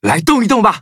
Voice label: battle, zh-hant